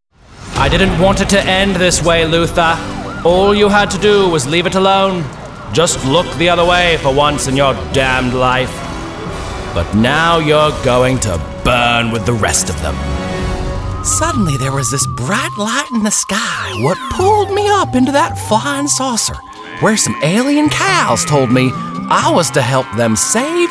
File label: character reel